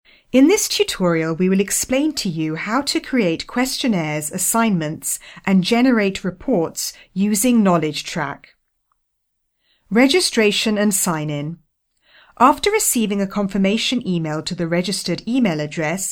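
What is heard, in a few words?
English, male; lektoring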